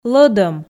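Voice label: "лӧдӧм", Komi, female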